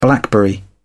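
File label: "blackberry", British English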